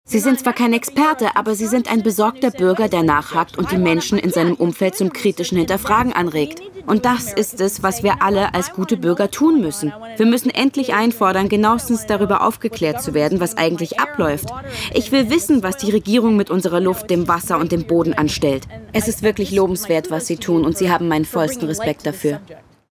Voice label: Voice Over; What are we spraying